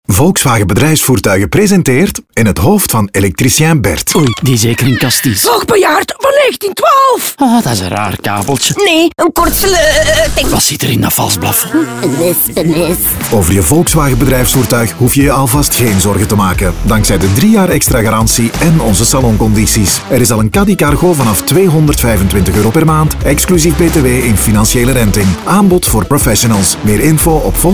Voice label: Radiospot; Volkswagen Bedrijfsvoertuigen; saloncampagne; voltage